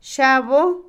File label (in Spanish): jabón, Otomí, mujer; Ropa; INALI